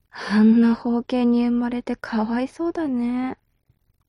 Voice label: mob女子台詞

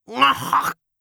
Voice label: 老人 がはっ！！

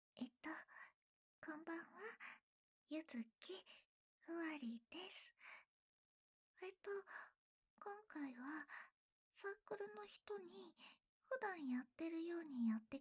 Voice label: アフタートーク, ASMR

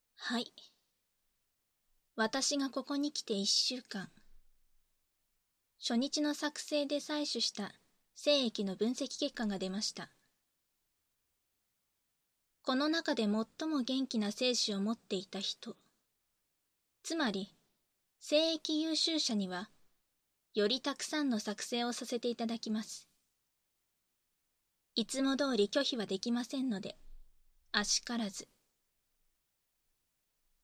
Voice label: 耳舐め生手コキ, バイノーラルマイク収録 効果音なし; 精液優秀者への耳舐め生手コキ

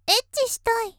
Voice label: 「エッチしたい」, 少女, ASMR; 元気な少女

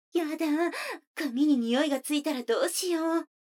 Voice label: 女の子 台詞

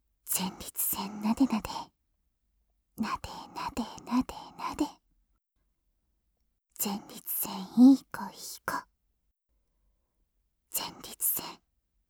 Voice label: 囁き前立腺責めループ, ASMR

